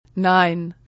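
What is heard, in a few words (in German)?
"nein", German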